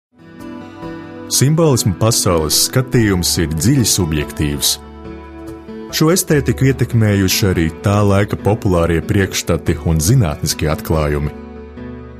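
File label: Latvian, male, narrative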